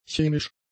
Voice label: "chemisch", German; adjectives

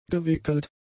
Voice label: "gewickelt", German; adjectives

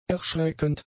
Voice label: "erschreckend", German; adjectives

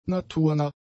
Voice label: "naturnah", German; adjectives

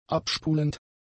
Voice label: "abspulend", German; adjectives

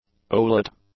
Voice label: "owlet", English; nouns

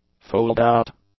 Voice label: "foldout", english; adjectives

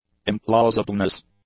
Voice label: "implausibleness", English

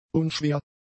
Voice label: "unschwer", German; adjectives

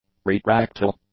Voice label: "retractile", English; adjectives

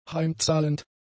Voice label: "heimzahlend", German; adjectives

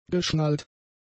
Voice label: "geschnallt", German; adjectives

